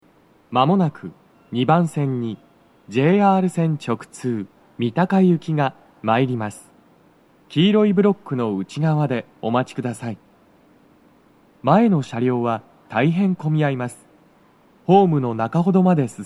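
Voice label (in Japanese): 接近放送, 三鷹行き (混雑付帯), 男声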